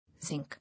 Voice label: "Zinc", English